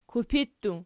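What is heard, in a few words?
"kupi", plural, Archi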